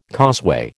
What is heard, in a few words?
"causeway", American